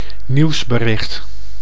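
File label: "nieuwsbericht", Dutch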